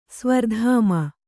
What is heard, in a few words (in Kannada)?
"ಸ್ವರ್ಧಾಮ", kannada